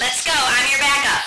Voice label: escort, radio, female

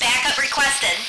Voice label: backup, radio, female

